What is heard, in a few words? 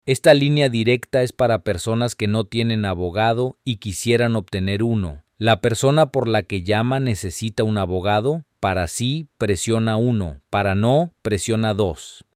Spanish, hotline